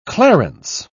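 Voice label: "clarence", British English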